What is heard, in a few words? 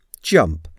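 "jump", English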